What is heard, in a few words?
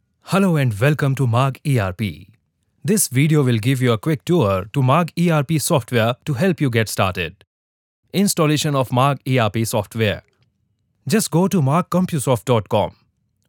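e-learning english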